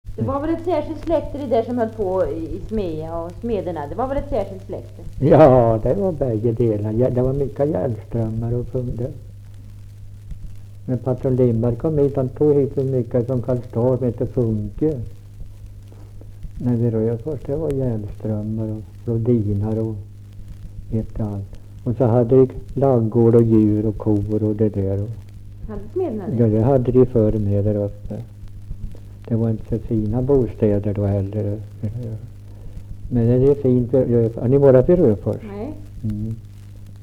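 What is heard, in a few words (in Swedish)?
Ramundeboda Närke, dialekter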